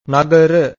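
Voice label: "nagara", Pali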